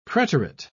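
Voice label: "preterit", British English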